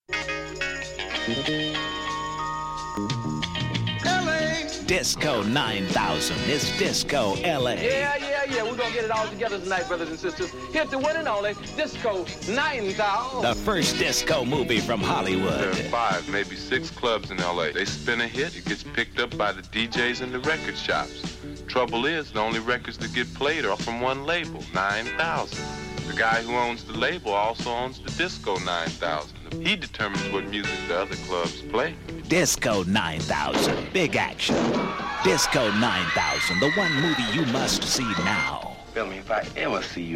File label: radio spot